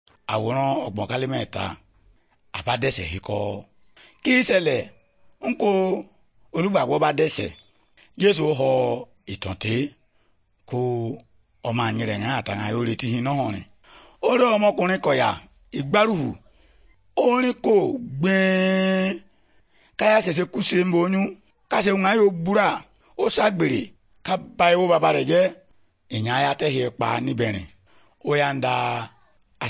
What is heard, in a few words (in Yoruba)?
good news yoruba, bible